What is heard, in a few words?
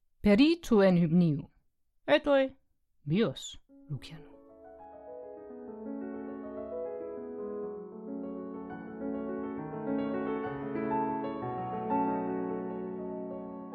Lucians Dream in Ancient Greek